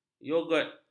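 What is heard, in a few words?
"yogurt", Malay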